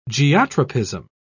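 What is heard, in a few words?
"geotropism", British English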